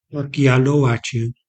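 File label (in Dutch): "akialoaatje", Dutch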